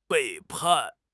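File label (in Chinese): "背叛", Chinese; HSK6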